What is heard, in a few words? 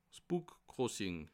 "bookcrossing", alsace